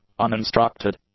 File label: "uninstructed", English; adjectives